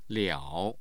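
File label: "liao", Mandarin Chinese, tone 3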